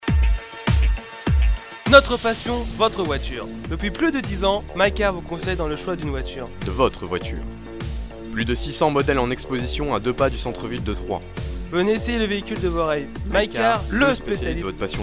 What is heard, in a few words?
Pub audio